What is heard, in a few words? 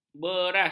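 "beras", Malay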